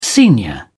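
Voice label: "senior", British English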